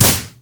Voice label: "oh", basicattack; LoL; lulu